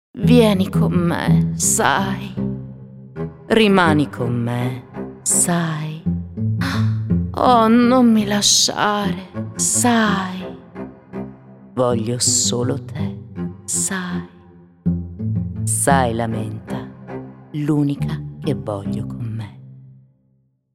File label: italian